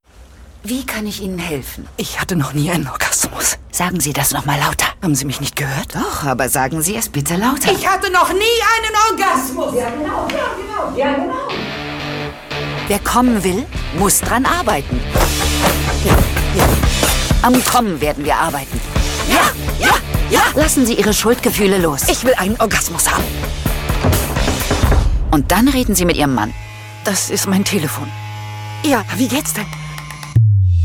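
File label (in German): Kinotrailer, Synchron, Sprecher, Männer; Cherie,ich komme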